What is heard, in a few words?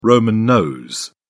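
"roman nose", English, British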